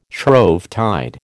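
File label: "Shrovetide", American English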